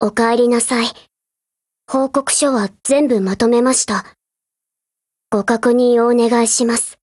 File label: HELLO JP; GF Alarm; M200